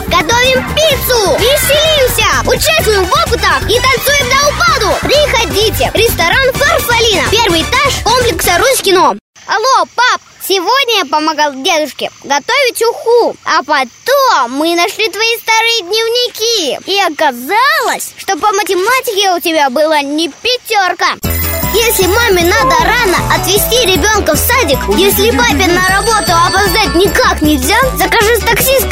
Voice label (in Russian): детский голос